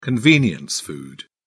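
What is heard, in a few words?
"convenience food", British English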